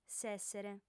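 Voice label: "s'èssere", lingua sarda, female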